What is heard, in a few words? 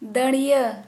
"Beard", Santali